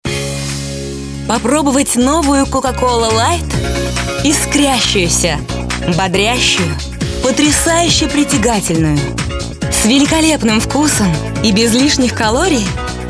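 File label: Russian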